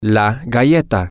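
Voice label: the cookie, spanish, male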